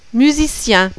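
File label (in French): "musicien", French; GENRE DES OEUVRES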